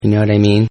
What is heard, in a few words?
Guy Asks Do You Know What I Mean; Exclamations; Partners In Rhyme